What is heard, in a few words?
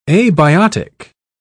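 "abiotic", British English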